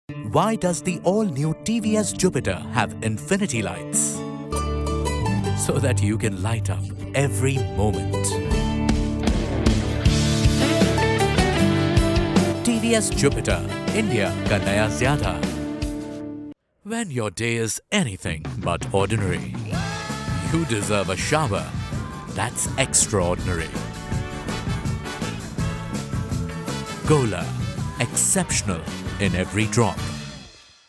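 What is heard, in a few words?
Hindi accented, female, English Ads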